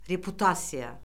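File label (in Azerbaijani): "reputasiya", Azerbaijani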